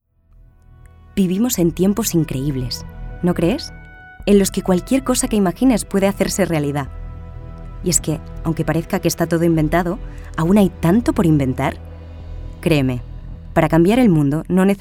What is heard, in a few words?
female spanish pants comercial; ideasonora